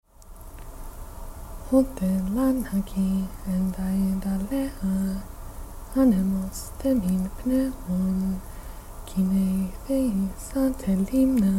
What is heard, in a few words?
Reading; Simonides 543; virtual international poetry day